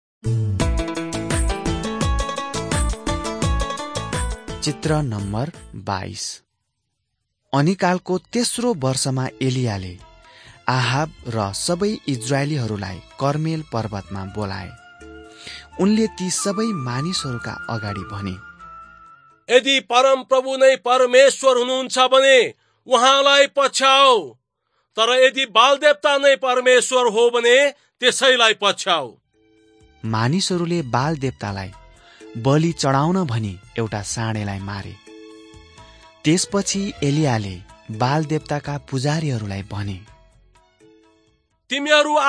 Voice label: servants of god nepali

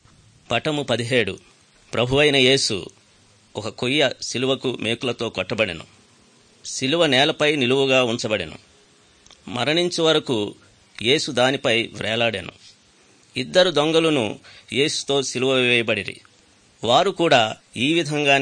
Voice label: good news telugu

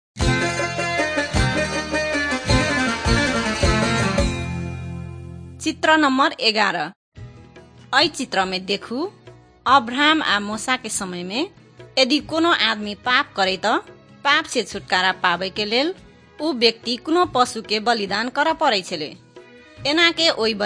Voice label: maithili thetiya

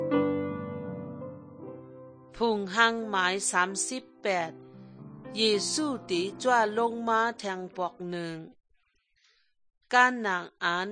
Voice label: good news shan eastern, drama

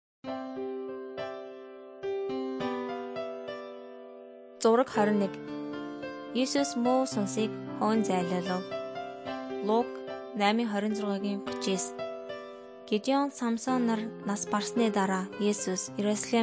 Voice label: mongolian

